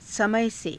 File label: "samaya se", hindi